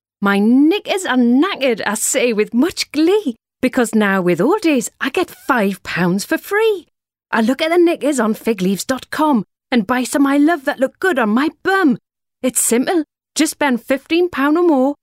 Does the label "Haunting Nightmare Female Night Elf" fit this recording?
No